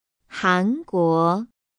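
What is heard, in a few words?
"Hanguo", Chinese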